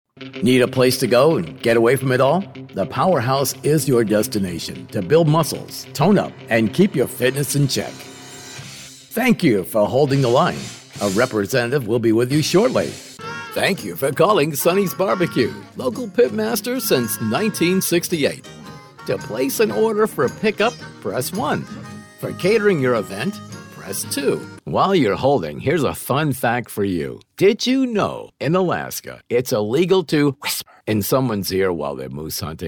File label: PHONE IVR